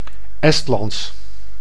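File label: "Estlands", Dutch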